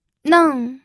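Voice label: "neng", fourth tone, pinyin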